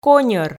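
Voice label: "коньӧр", Komi, female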